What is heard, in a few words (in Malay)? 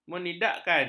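"menidakkan", Malay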